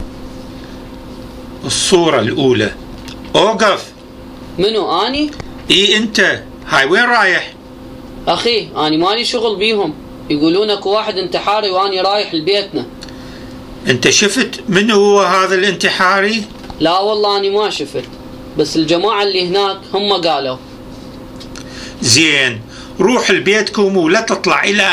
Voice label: Dialogue, Iraqi Dialect; Suicide bomber

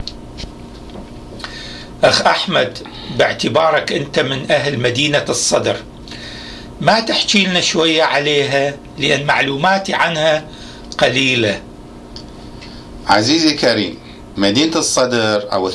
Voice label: Dialogue about al-Sadr City, Iraqi Dialect

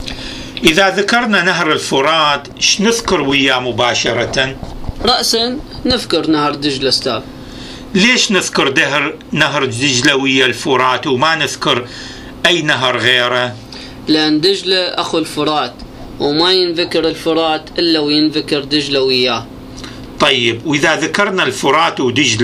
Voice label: Dialogue about Tigris River, Iraqi Dialect

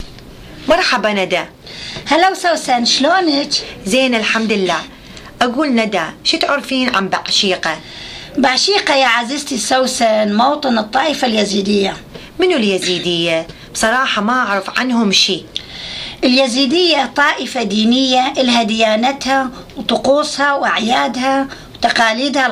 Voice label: Dialogue, Iraqi Dialect; Bachiqa City

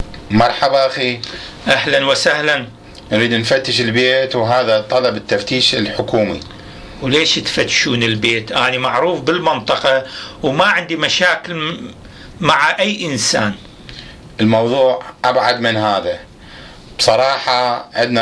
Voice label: Dialogue inside searched house, Iraqi Dialect

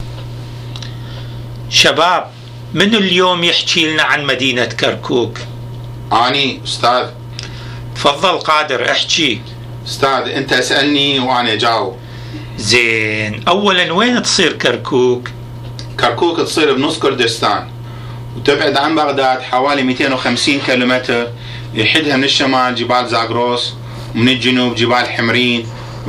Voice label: Dialogue about Kirkuk City, Iraqi Dialect

